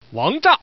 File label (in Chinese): "wangzha", man; guizhou